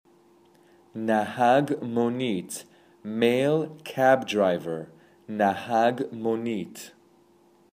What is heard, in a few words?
Male Cab Driver